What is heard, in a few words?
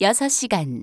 Korean 5 hour; samsung YP-U5; Audio User Interface